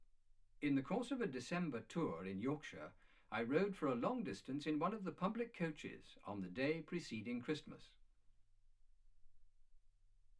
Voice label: male speech english